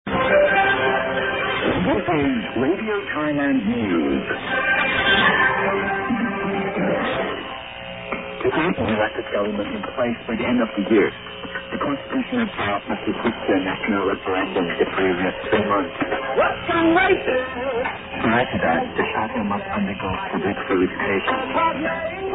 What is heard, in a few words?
radio thailand n w usa 5890khz, broadcast sound